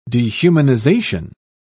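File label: "dehumanisation", British English, dictionary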